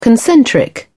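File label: "concentric", British English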